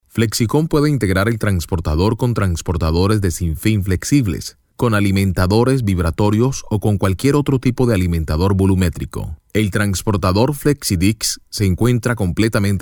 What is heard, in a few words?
spanish latin american e-learning